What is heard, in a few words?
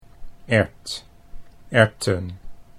"erwt erwten", Dutch